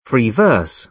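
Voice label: "free verse", British English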